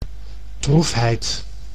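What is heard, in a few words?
"droefheid", Dutch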